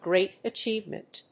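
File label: "great achievement", English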